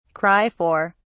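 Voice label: "cry for", British English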